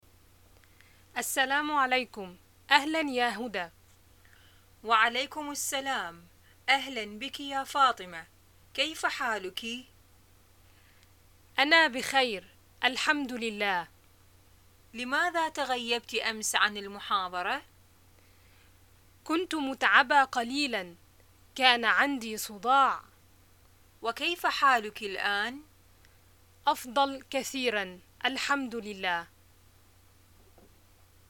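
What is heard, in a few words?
Modern Standard Arabic greetings, dialogues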